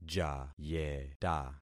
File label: "jayeta", concat syllables; Affixing experiment